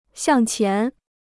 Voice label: "向前", Chinese